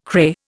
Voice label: "cray", Indian